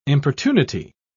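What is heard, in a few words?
"importunity", British English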